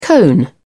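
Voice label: "cone", British English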